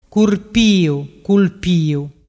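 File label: "curpiuculpiu", Vernazzese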